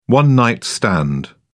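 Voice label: "one night stand", English, British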